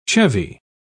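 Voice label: "chevy", British English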